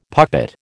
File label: "puppet", American